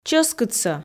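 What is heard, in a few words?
"чӧскыдсӧ", Komi, female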